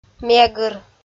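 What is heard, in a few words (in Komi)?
"мегыр", Komi, female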